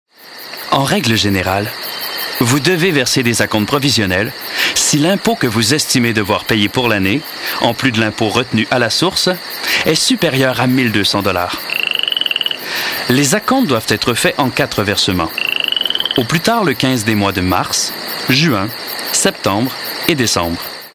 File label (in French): REVENUS QC